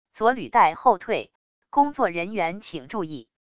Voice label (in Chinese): 语音, 语音报警器